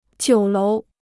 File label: "酒楼", Chinese